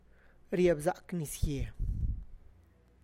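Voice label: good rain season, Tlacochahuaya Zapotec; agriculture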